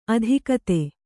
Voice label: "ಅಧಿಗತ", kannada

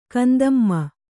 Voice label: "ಕಂದಮ್ಮ", Kannada; kandamma; noun; a very young child.

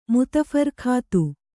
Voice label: "ಮುತ್‍ಫರ್ಖತ್", Kannada

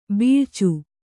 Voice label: "ಬಿಳಿಚ", kannada

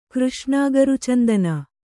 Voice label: "ಕೃಷ್ಣಾಗರುಚಂದನ", Kannada

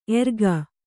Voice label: "ಎರಗು", Kannada

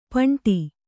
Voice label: "ಪಂಟಿ", kannada

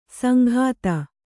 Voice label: "ಸಂಘಾತ", kannada

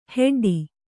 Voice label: "ಹೆಡ್ಡಿ", Kannada

